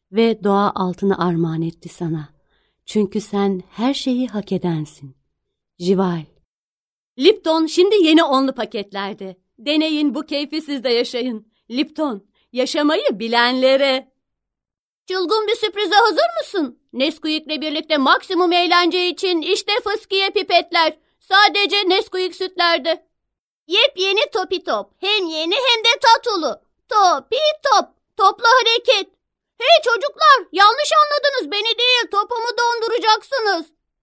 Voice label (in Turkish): kadin sesler, turkce seslendirme